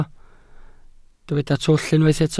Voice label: "twll", Welsh